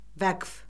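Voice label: "vəqf", Azerbaijani; Orfoqrafiya Lüğəti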